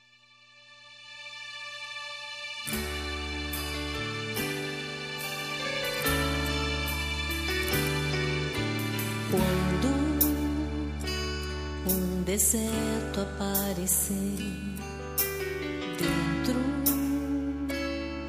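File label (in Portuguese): Evangélica Aniversário de Amizade, female, telemensagens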